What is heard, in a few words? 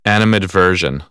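"animadversion", American English; AmericanBritish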